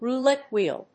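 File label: "roulette wheel", English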